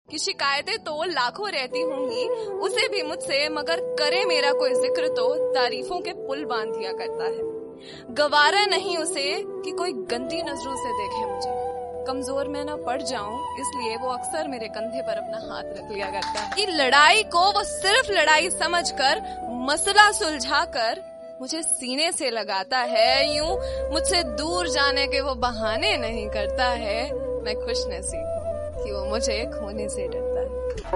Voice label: Hindi Poetry